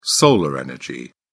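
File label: "solar energy", English, British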